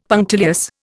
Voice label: "punctilious", Indian; Dictionary